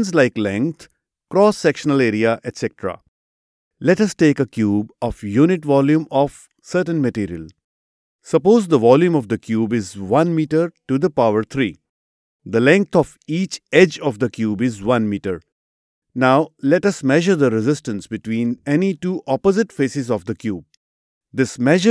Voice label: Elearn English Resistivity